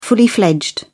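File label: "fully fledged", British English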